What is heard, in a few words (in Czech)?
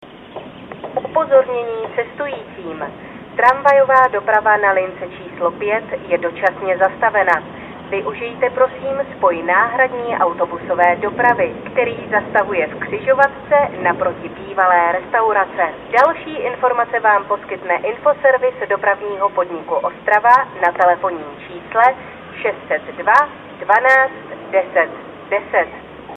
hlaseni zatisi